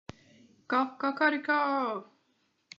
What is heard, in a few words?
"cococorico", portuguese